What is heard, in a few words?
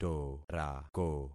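"torako", concatenated syllables; Affixing experiment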